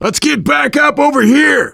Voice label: directional, voice, biker; Left 4 Dead 2; left4dead2 dlc2; survivor